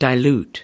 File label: "dilute", us